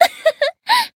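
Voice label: Voice attack, Japanese; toova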